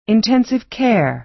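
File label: "intensive care", American English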